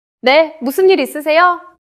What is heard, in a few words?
neutral, monologue